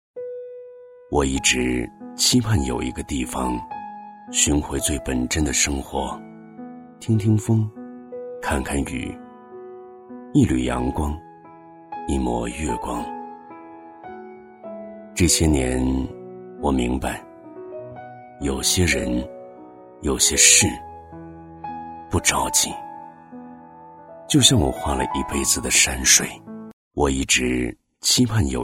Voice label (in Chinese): 其他, 微电影, 画家独白